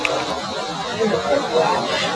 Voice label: random voice tear her blouse maybe residual enhanced